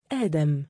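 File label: "2aadam" male, Arabic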